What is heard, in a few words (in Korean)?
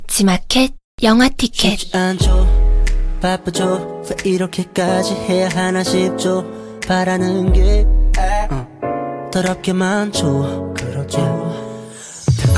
광고 감성; 지마켓